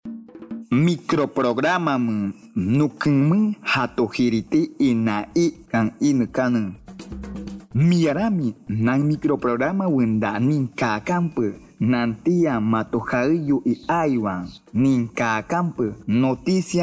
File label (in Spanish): amawaka entrega de bienes y alimentos 1 voz, spot de radio; medidas de prevencion